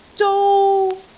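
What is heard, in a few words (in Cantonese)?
"zou1", Cantonese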